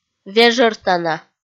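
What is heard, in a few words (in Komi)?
"вежӧртана", Komi, female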